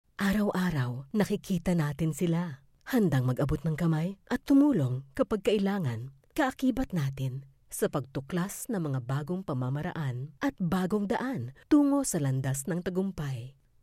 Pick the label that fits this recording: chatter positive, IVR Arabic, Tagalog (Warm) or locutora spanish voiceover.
Tagalog (Warm)